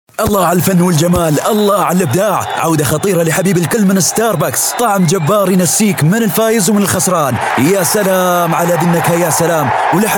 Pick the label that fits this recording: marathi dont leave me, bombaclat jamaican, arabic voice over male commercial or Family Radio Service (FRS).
arabic voice over male commercial